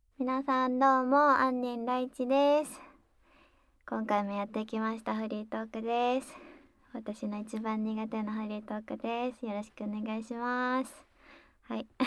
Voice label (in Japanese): フリートーク音声; 銀髪転校生姉妹